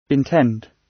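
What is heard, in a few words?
"intend", British; Language, linguistics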